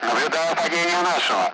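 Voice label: Speech, Russian; Allied Flight; member down; DCS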